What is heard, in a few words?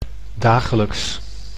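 "dagelijks", Dutch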